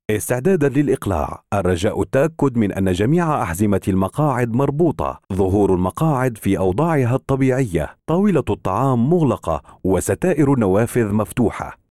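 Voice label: Arabic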